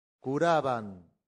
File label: "curabant", Latin; santillana